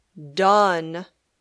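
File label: "done", English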